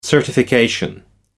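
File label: "certification", British English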